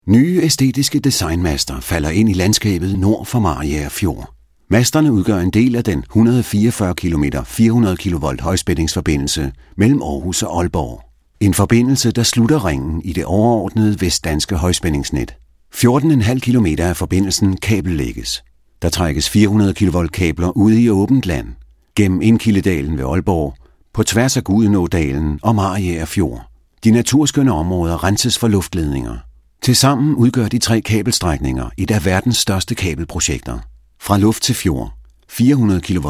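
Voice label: Danish, male, e-learning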